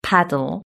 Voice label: "paddle", British English

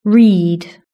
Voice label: "read", british english

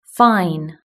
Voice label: "fine", british english

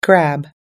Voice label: "crab", us english

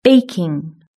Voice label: "baking", British English

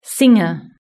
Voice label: "singer", british english

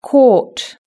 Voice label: "caught", british english

